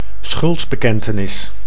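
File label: "schuldbekentenis", Dutch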